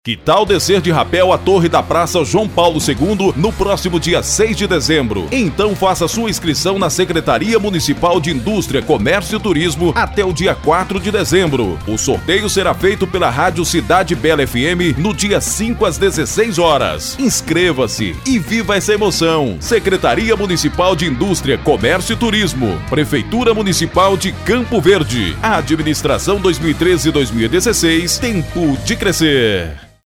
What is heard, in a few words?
Spot; Decida de rapel